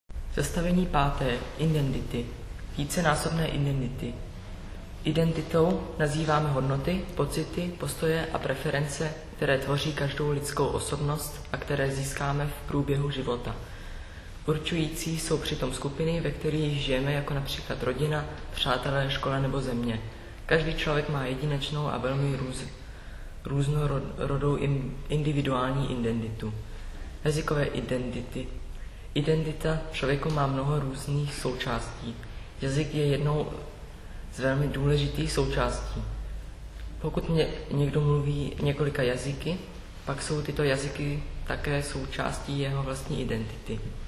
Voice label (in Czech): cesky